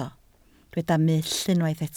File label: "mull", Welsh